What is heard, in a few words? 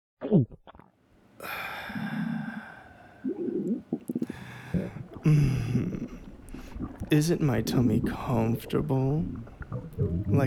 Fox Dialogue with Gurgles